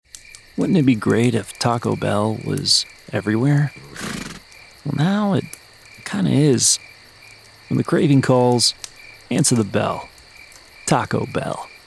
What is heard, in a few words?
Commercial Demo